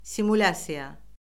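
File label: "simulyasiya", Azerbaijani; Orfoqrafiya Lüğəti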